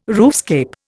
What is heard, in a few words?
"roofscape", Indian